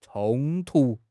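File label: "冲突", Mandarin Chinese; HSK; HSK6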